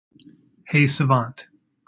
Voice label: hey savant, wake word, en